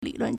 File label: "li3lun4", Chinese